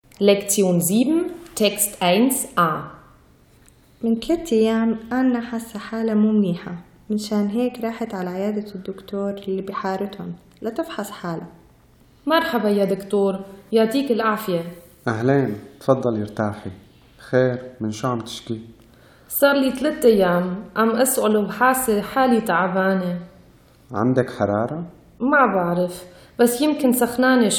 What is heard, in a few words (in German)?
syrisch-arabischer dialekt; Beim Arzt; ʕand әd-dәktōr